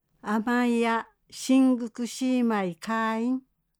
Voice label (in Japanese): 宮古島城辺友利の言葉; アマイヤ シングクシーマイ カーーイン。; 黄金言葉; 黄金言葉カレンダー